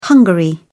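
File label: "hungary", English, British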